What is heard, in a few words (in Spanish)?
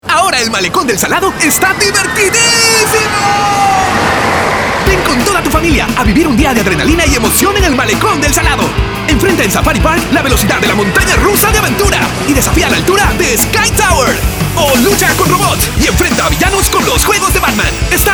Cuña; Safari Park